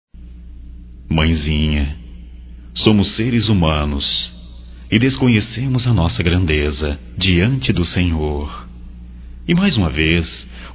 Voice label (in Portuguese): Natal geral, masc; Telemensagens 2017; Natal & Ano Novo